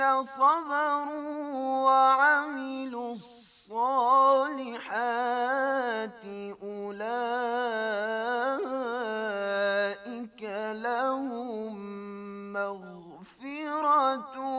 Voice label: Spanish